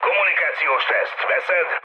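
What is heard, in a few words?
chat, speech hungarian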